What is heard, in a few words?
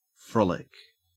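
"frolic", Australian English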